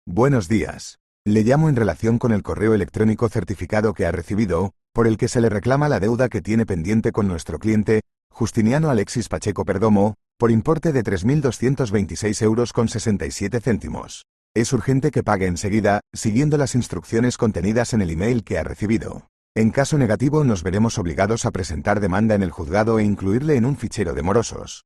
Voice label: audio call